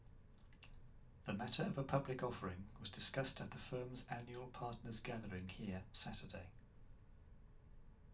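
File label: Sim Data room far male, reverberant environments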